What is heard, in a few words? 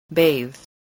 "bathe", English